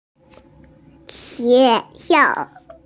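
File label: "xue2xiao4", female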